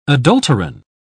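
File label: "adulterine", British English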